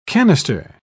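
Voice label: "cannister", British English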